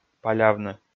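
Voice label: "палявны", Komi, male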